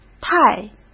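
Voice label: "太" Mandarin Chinese; hsk; chinese-flashcards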